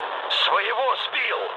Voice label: player killed ally, mood low, speech ru

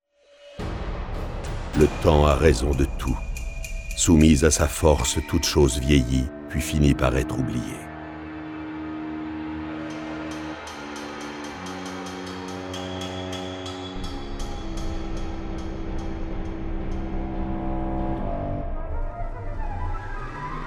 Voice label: Stonehenge, Speech, French, Wonder; Civ5

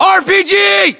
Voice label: US threat rpg, battlechatter, english; localized english

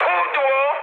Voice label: bomb failure mood high, speech pol; War Thunder

